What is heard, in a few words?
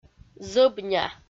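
"зӧбня", Komi, female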